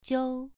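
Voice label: "jiu", Mandarin Chinese, second tone, pronunciation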